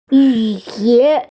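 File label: "yu xie" Chinese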